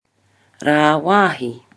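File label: "rawahi", Māori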